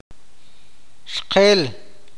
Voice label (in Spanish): "esposa", awakateko